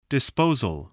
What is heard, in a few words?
"disposal", spelling